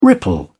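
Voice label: "ripple", British English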